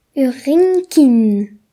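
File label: "shark", Breton; gcompris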